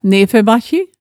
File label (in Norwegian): "neførbakkji", Hemsedal